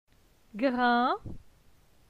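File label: "Grin", French; phonetique; la syllabe in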